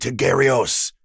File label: Vox Skill, en; kingsraid; voices heroes